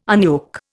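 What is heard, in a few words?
"unyoke", Indian English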